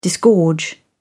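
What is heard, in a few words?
"disgorge", British English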